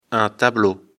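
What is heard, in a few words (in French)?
"tableau", French; afrikalan; lecture fr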